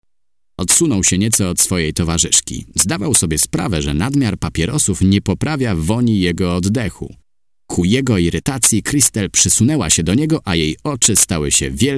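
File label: polish male voice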